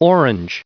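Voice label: "orange", US English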